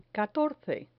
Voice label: "catorce", Spanish